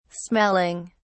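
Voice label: "smelling", English, British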